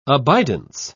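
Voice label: "abidance", British English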